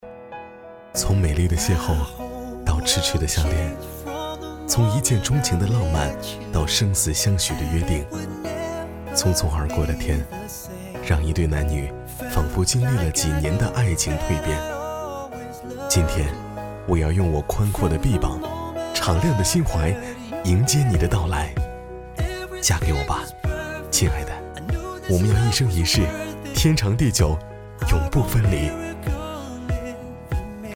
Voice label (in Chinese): 男 旁白 婚礼旁白